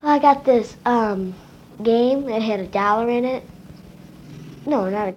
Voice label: Conversation with 12 year old white female Detroit Michigan; american english dialect